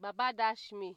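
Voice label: "babadashme", Nigerian Pidgin, female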